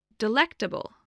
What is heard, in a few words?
"delectable", English